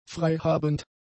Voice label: "freihabend", German; adjectives